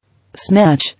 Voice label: "Snatch", English